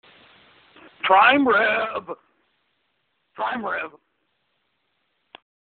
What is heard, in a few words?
voicemail